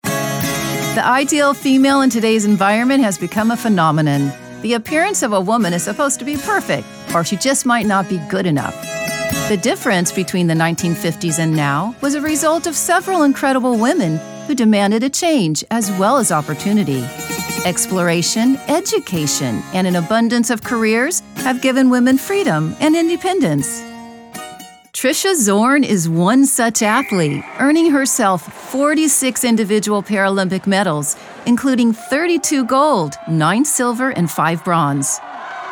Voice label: Documentary